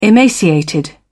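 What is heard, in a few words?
"emaciated", British English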